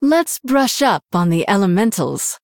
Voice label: Female Human Picked; en